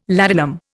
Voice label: "ladanum", Indian